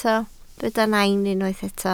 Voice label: "nain", Welsh